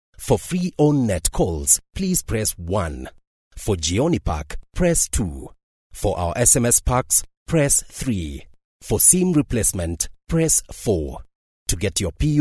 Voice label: AIRTEL IVR